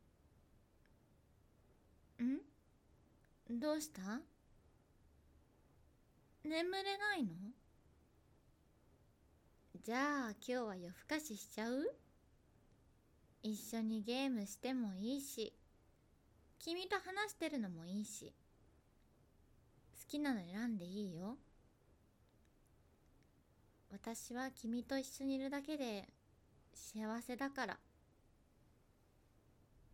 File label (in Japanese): おねえさんぼいす